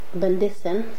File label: quarrel, Domari; ḥākim lakedosan.